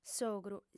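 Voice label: "s'ogru", lingua sarda, female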